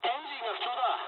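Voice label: Japanese damaged engine mood med; War Thunder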